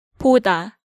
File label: "Boda", Korean